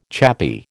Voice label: "chappie", American